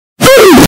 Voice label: oof earrape; roblox

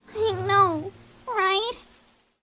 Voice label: sad; madoka; untildawn

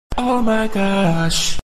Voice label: autotune oh my gosh; chris smoove

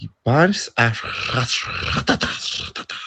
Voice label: speaking dutch

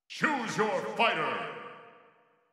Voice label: announcer; ssb

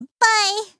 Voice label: hat kid voicelines; a hat in time

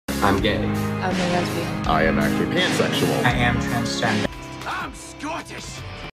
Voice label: the scottish bloke

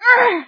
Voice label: hurt sound; leia; lego star wars

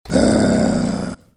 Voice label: growl

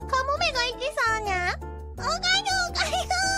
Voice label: excited; korone

